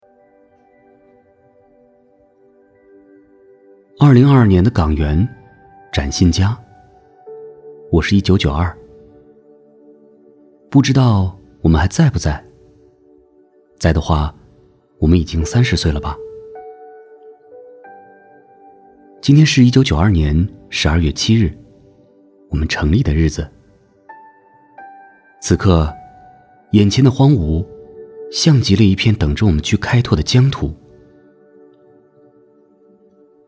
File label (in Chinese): 男 独白; 港源